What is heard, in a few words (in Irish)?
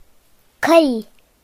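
"wood", Irish; gcompris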